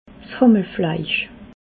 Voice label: "hammelfleisch", alsace

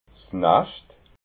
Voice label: "nascht", alsace

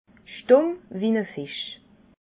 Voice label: "stumm", alsace

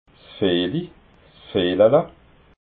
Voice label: "fili", alsace

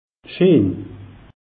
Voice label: "scheen", alsace; meteo

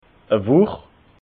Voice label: "wuch", alsace

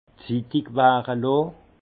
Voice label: "zittigwareloh", alsace; vin; maturation

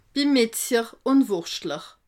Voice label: "metzjer", alsace

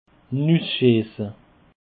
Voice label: "nusschiesse", Alsace

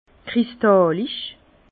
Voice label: "kristallisch", Bas Rhin; vin; cristalin

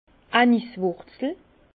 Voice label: "anis wurzel", Bas Rhin

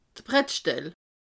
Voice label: "bretteschdel", alsace

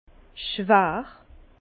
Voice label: "schwar", Bas Rhin; vin; lourd

